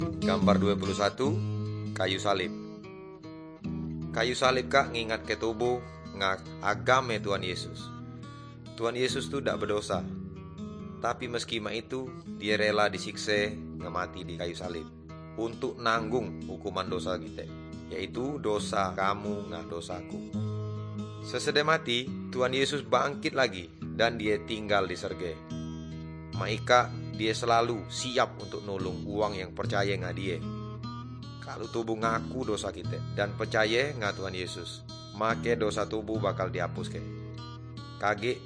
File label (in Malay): good news melayu tanjung raja